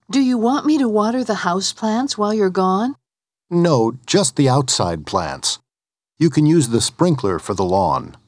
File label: dialog